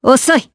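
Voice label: voice Skill, voices heroes, Japanese; Ripine; kingsraid audio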